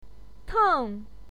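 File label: "teng4", Mandarin Chinese pinyin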